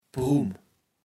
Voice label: "Prumm", Platt

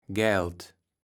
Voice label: "Gaeld", Platt

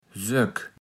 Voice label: "Söck", platt

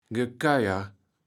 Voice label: "Gekeuer", kaevels platt

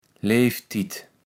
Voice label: "Leeftit", kaevels platt

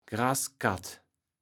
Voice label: "Graßkatt", kaevels platt

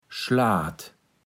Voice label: "Schlaat", platt

